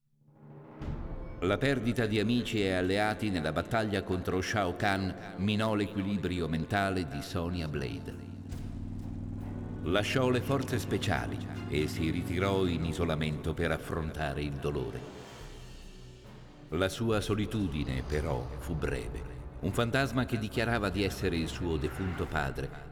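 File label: music voice-over endings Italian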